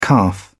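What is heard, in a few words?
"calf", English, British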